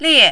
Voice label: "lie4", mandarin